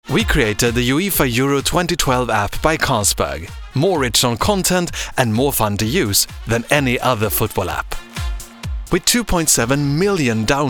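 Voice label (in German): British English, Freundlich; Fremdtake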